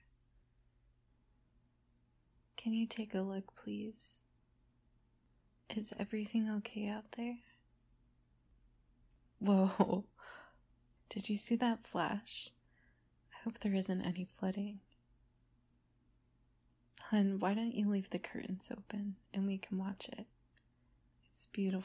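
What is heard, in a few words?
Enjoy the Storm with Me, Romantic, Playful, female; F4M; Couple; Needy; Cuddling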